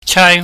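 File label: "chai", Cantonese, high falling tone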